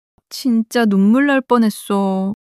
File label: sadness; monologue